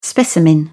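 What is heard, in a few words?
"specimen", English, British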